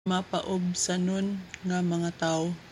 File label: Cebuano